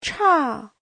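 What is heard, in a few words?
"chà", Mandarin Chinese; Giáo trình Hán ngữ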